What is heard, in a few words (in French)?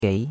"gei2", chinois; Cours de Chinois